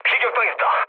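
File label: Korean aircraft damaged mood low; War Thunder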